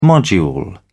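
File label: "module", British English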